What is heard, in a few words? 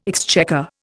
"exchequer", Indian